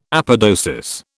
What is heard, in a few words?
"apodosis", British